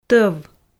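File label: "тӧв", Komi, female; audio dict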